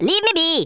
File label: "go away", Rasta